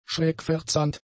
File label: "schrägverzahnt", German; adjectives